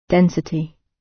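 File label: "density" British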